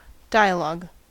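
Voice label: "dialog", US English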